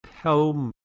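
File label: "pelm", Proto-Indo-European; eastern origins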